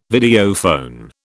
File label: "Videophone", British